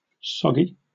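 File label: "soggy", British English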